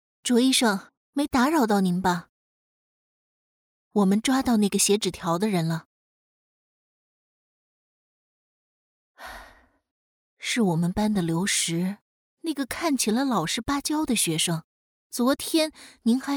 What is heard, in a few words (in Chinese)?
悬疑推理 中年老师; 心理案例